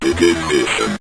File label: begin Mission, Synth Voice; Kenney's Sound Pack